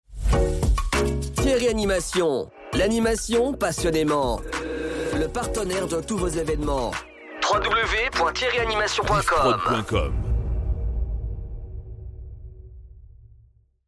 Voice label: Jingle Partenaire Evenements; Thierry Animation

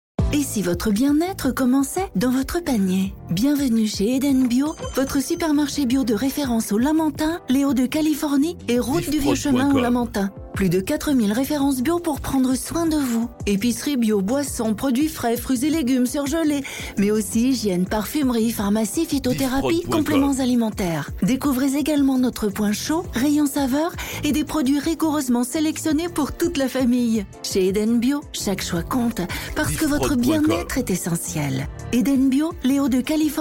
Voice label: Spot Supermarche Bio; Esperance FM; Eden Bio

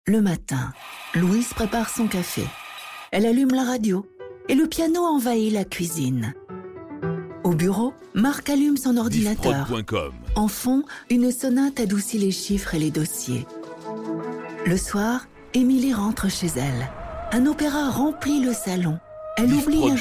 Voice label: Autopromo, publicite

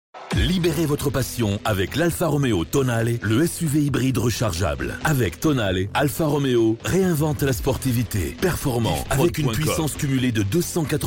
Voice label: Spot, publicite; Alfa Romeo; Tonale

